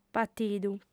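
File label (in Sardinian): "patidu", Sardinian, female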